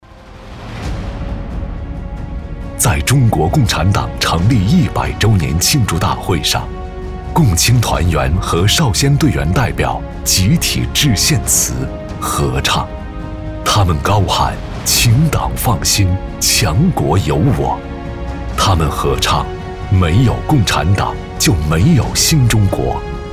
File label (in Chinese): 男 温情大气专题片